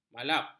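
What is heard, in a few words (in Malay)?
"malap", Malay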